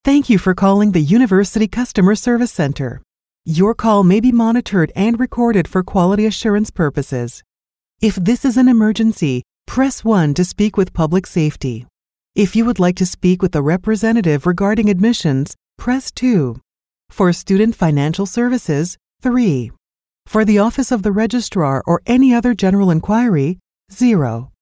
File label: us english ivr